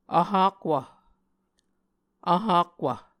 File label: "vhakwv", Muscogee